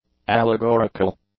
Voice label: "allegorical", English; adjectives

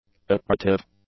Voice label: "accretive", english; adjectives

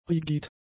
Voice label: "rigid", German; adjectives

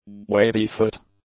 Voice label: "wavy-foot", English; nouns

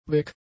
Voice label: "weg", German; adjectives

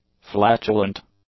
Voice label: "flatulent", english; adjectives

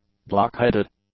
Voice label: "blockheaded", English; adjectives

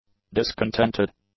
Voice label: "discontented", English; adjectives

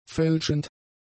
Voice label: "fälschend", German; adjectives

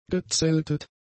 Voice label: "gezeltet", German; adjectives

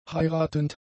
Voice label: "heiratend", German; adjectives

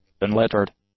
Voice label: "unlettered", English; adjectives

